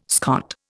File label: "Scot", Indian